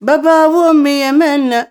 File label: OLD WOMAN, Arabian Traditions; USB Soundscan vol.43; AKAI